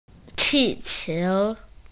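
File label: "qi4qiu2", Mandarin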